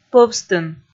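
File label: "пӧвстын", Komi, female; kpv audio dict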